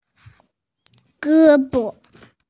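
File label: "ge1 bo5", Mandarin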